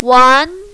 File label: "wan2", mandarin, female